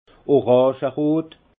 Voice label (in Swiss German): "orangerot", alsace; vin; rose orangé